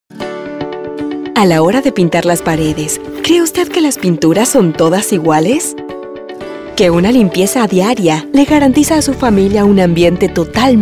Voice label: Corporate